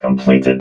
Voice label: "completed", robot vox

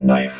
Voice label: "knife", robot

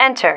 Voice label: "enter", female

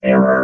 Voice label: "error", robot

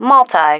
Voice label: "multi", female

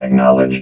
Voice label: "acknowledged", robot vox

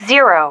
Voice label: "zero", female

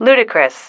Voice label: "ludicrous", female

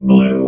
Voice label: "BLUE", vox robot

